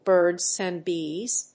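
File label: "birds and bees", English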